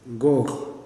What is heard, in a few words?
"die", Kuman